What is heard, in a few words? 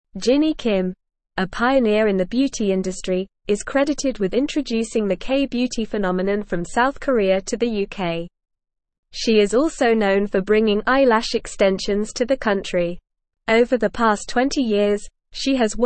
English Advanced NORMAL Reading; English Newsroom; Jinny Kim Pioneer of K Beauty in the UK